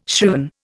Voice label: "shriven", Indian